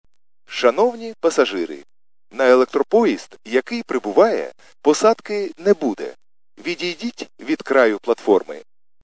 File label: khs st male, subway station